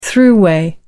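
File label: "thruway", British English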